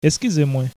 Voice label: excuse me, haitian creole

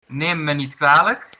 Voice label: excuse me, dutch

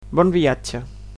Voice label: "bon voyage", Catalan

